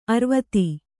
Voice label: "ಅರ್ವತಿ", Kannada

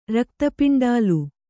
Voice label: "ರಕ್ತಪಿಂಡಾಲ", Kannada

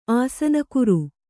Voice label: "ಆಸನಕುರು", Kannada; āsanakuru; noun

